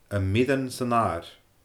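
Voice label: "a mirean san adhar", Scottish Gaelic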